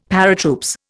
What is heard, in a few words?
"Paratroops", Indian; Dictionary